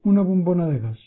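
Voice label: cylinder desc, Catalan; household; stamps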